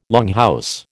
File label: "longhouse", American